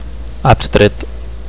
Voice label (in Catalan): "abstret", Catalan; absorbed; engrossed; abstracted; absent minded